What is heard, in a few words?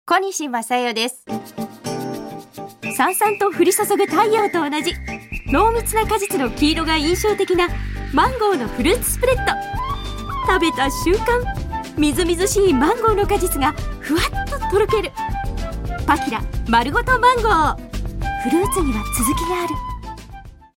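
female radio commercial fruit company English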